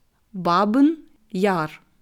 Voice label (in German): "Babyn Yar", ukrainische aussprache